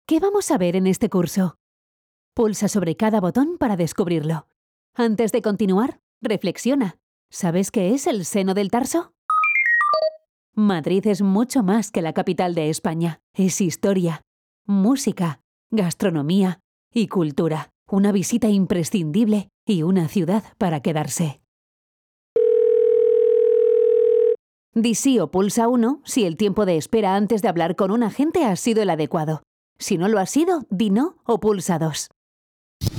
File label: narration ivr elearning